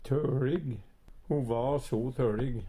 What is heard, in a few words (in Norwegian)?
"tolig", Numedalsmål; Adjektiv